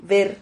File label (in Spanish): "ver", Silabas